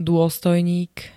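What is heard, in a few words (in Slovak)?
"dôstojník", Slovak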